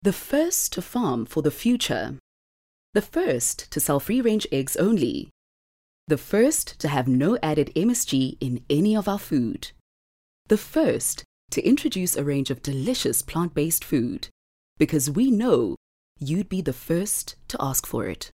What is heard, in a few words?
English Soft Sell